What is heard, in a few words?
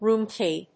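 "ROOM KEY", English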